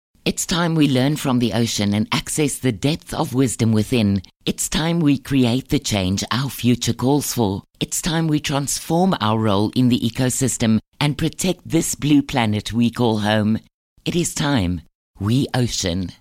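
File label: English South African; Documentaries; Female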